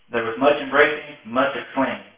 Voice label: male